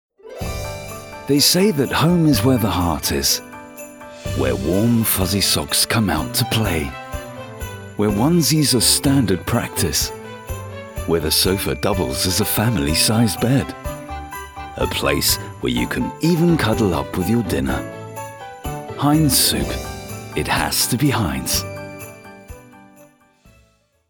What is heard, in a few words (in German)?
Werbung Ad Soup; Heinz Soup